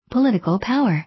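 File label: "political power", English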